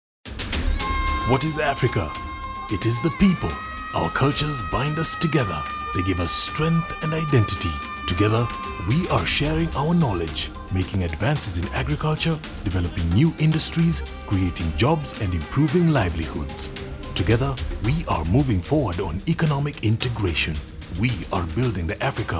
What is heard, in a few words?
radio commercial; what is africa